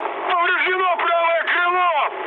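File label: right wing, mood high, Russian; War Thunder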